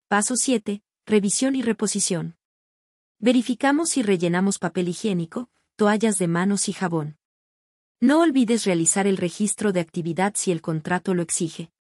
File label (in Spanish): locucion